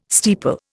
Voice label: "steeple", Indian